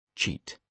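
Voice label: "cheat", British English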